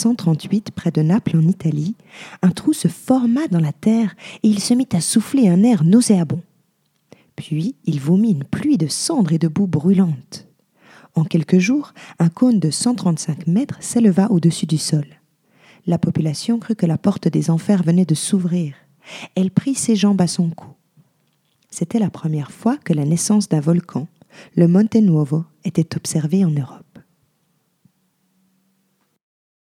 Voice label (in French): voix off documentaire pour enfants